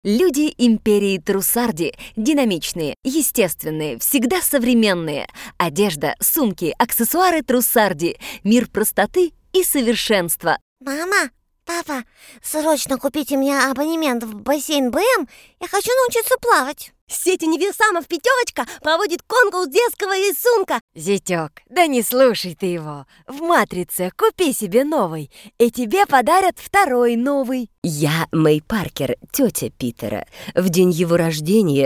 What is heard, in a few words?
russian